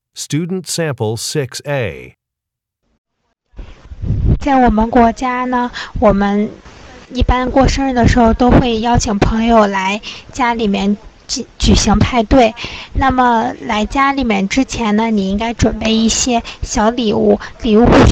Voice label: chinese conversation